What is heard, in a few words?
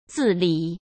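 "自理", Mandarin Chinese; chinese flashcards; hsk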